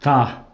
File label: "tah", Pashto